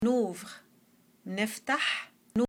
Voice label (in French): ouvre libanais